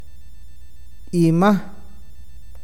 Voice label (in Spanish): seno, awakateko